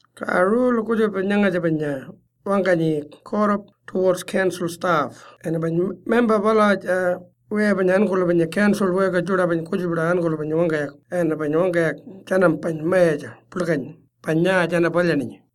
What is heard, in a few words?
Code of Conduct CONDUCT TOWARDS C STAFF Pitjantjatjara